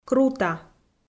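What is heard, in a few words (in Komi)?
"крута", Komi-Zyrian, female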